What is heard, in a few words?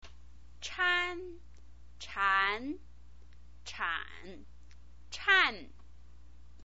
"chan", chinese pinyin